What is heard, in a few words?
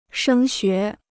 "升学", Chinese